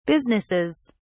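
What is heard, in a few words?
"businesses", British English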